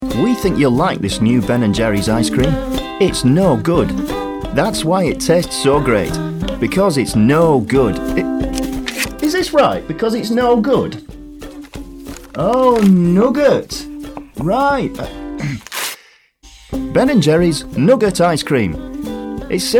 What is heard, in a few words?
northern